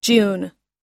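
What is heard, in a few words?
"june", US English; June – Junho; Datas em Inglês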